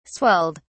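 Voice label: "swelled", British English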